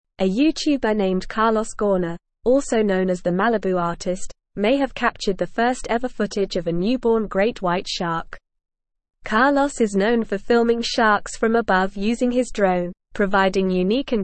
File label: English NORMAL Reading; TheMalibuArtist captures possible birth of great white shar